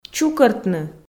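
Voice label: "чукӧртны", Komi, female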